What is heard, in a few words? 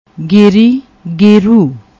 "geri", Punjabi; ਗੇਰੂ